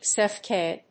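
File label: "GFSK", English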